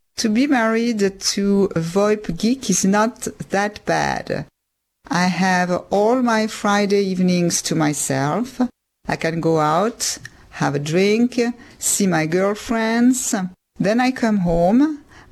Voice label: English G.722.1C encoded